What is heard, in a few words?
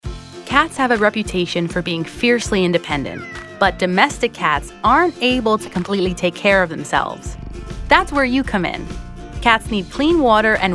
Narration